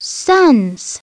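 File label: "son's", English; SoftKey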